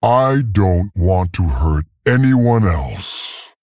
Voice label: Male Tauren